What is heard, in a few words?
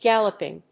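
"galloping", English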